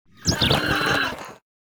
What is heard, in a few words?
Greetings avian; Stellaris